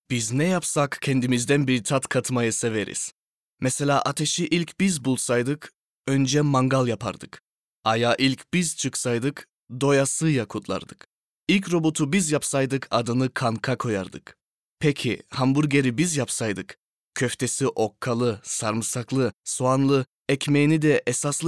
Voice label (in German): casting werbung tuerkisch